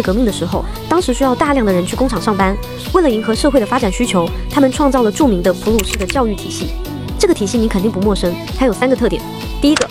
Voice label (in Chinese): 软妹